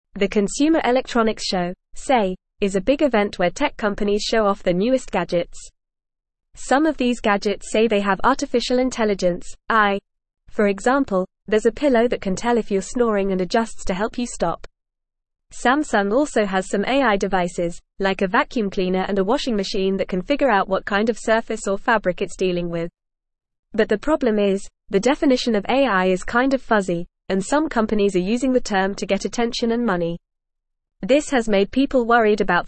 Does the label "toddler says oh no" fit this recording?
No